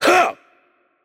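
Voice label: Attack Heavy, Japanese; ja JP; VO; bloom minigame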